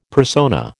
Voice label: "persona", American